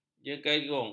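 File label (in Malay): "jenjagung", Malay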